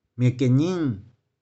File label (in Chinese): "mih4kih4ning6", 玉環